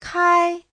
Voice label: "kai1", chinese